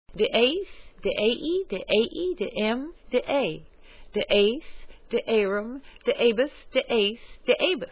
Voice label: dies slow, latin